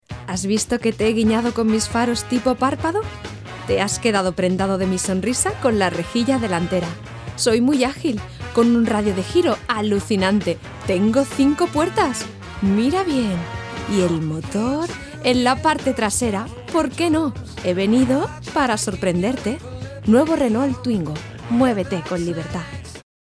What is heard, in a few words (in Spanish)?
Castellano; Renault Twingo